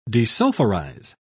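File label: "desulfurize", British English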